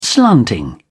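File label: "slanting", British English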